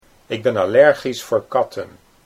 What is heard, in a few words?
"ik ben allergisch katten", Dutch